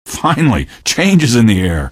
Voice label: question, male; Garry's Mod; hl2

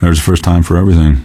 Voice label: answer, male; Garry's Mod; hl2

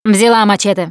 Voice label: melee weapons, russian, Survivor Voice Producer

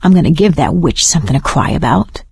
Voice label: heard witch, survivor voice; Left 4 Dead 2; producer

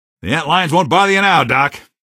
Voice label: pheropod nag, male; Garry's Mod; hl2; bugbait

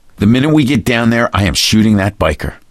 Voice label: elevator, survivor voice gambler; Left 4 Dead 2

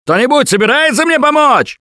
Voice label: help, russian; Gambler

